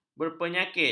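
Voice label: "berpenyakit", Malay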